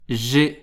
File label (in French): "j'ai", French